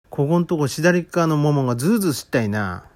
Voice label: 「ズーズー」, 旭弁 銚子弁; ※痛みや不快な感じを表現する言葉; 「こごんとごしだりっかぁのももがズーズーすったいなぁ」; （訳）近頃左側の腿に不快な痛いがあるんだよなぁ

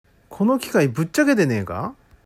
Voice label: 「ぶっちゃげる」, 旭弁 銚子弁; ひどく壊れる; 完全に壊れた; 「この機械ぶっちゃげでねーが？」; （訳）この機械壊れてないか？

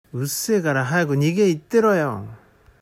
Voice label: 「にげー」, 旭弁 銚子弁; 二階; 「うっせえがらはやぐにげー行ってろよ」; （訳）うるさいから早く二階行ってろよ

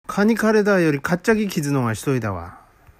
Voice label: 「かっちゃぐ」, 旭弁 銚子弁; 引っ掻く; 引っ掻き; 「蚊にかれだーよりかっちゃぎ傷のがしといだわ」; （訳）蚊に刺されたより引っ掻き傷の方が酷いんだよ

